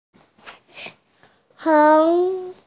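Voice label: "heng2", Mandarin Chinese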